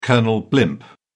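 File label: "colonel blimp", British English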